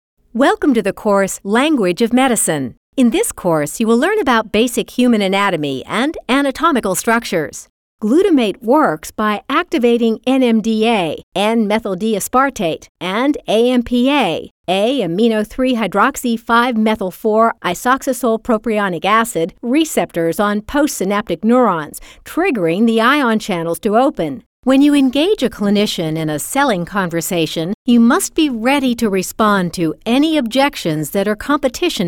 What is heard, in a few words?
medical, female voice